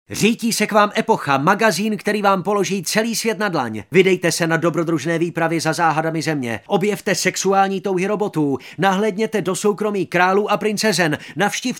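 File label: Male Czech commercial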